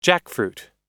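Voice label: "jackfruit", American English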